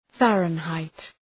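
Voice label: "Fahrenheit", English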